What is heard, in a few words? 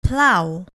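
"plough", English word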